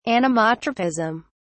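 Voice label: "anemotropism", British English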